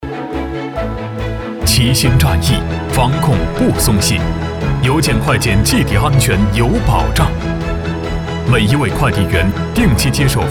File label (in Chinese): 男 宣传 疫情防控 邮政